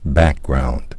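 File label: "background", spoken word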